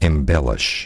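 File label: "embellish", English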